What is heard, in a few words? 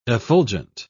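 "effulgent", British English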